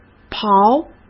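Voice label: "刨", Mandarin Chinese; chinese flashcards; hsk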